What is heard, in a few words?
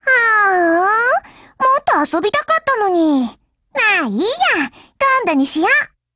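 WIN, Japanese; GF Alarm; M4 SOPMOD IIMod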